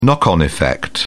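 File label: "knock-on effect", English, British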